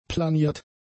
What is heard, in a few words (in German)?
"planiert", German; adjectives